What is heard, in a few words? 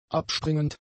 "abspringend", German; adjectives